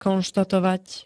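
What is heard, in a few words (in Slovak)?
"konštatovať", Slovak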